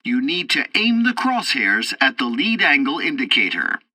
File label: tutorial fighter fight, english; War Thunder